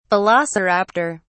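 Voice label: "velociraptor", British English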